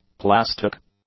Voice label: "plastic", english; adjectives